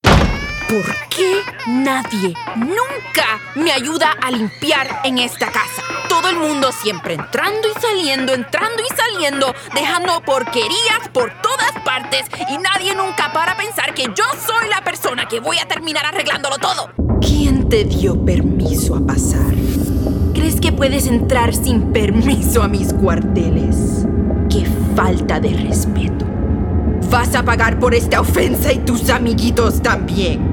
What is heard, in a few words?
Spanish Latin American animation